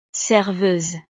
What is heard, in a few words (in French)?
"serveuse", frances; profesiones